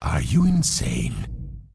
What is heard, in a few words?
Undead Male Warrior Pissed; NPC